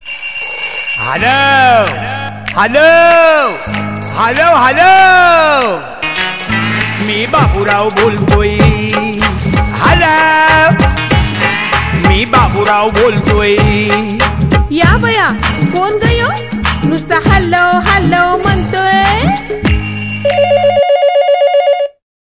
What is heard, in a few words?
hello, Marathi; HELLO MEE BABURAO BOLTOY